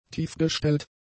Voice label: "tiefgestellt", German; adjectives